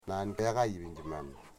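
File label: "you don't see me", Wamut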